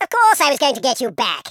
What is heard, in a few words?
"revenge", Patronising